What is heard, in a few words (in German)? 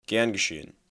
"Gern geschehen", German